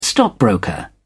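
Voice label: "stockbroker", English, British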